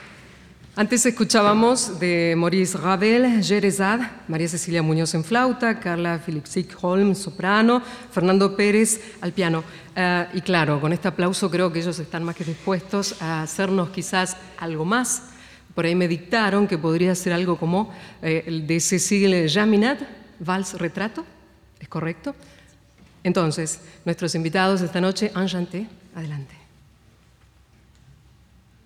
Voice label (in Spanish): locutora